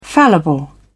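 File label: "fallible", British English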